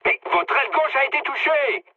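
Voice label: left wing, mood medium, French; War Thunder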